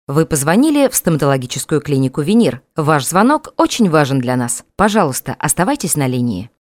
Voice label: IVR

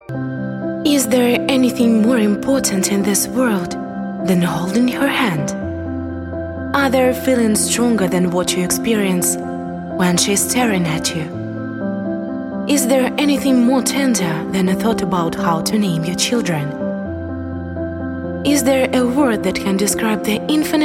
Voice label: ENG

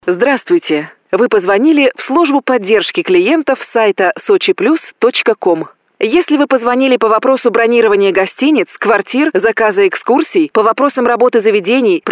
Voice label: IVR

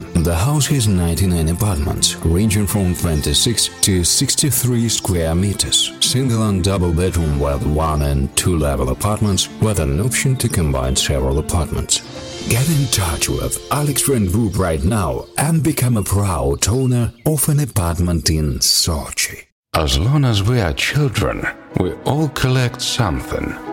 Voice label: ENG

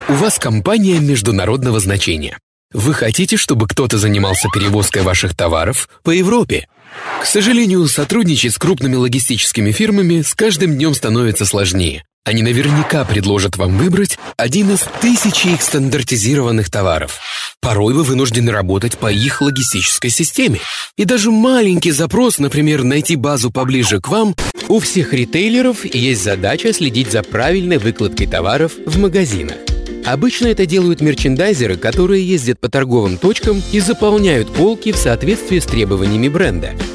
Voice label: EXPLAINERS

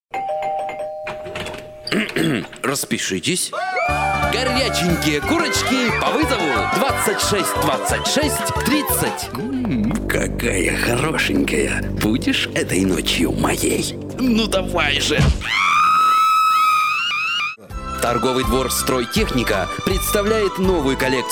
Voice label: Russian

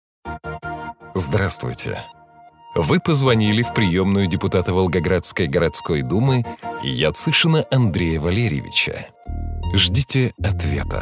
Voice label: IVR

